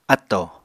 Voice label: "áttò", Apatani

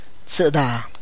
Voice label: "fourteen", tlacochahuaya

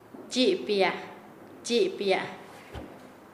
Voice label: Nasa Yuwe; poner; paez

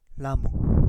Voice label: Kapingamarangi; immature mosquito

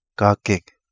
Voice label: Kapingamarangi; ladder fruit Picking

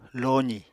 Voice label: "lozì", Apatani

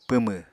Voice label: "pwmw", Apatani

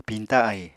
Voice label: "piñta ahi", Apatani; Household Items

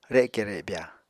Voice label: "reke rebya", Apatani

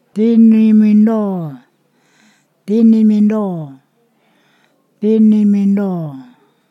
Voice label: "stood", Ponosakan

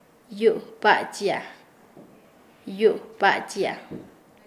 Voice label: Nasa Yuwe; aparar agua

